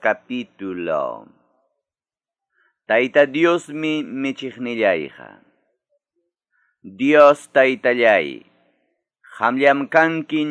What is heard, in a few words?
salmos, quechua ayacucho